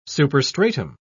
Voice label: "superstratum", en uk

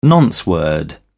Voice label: "nonce word", en uk

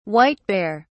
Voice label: "white-bear", uk

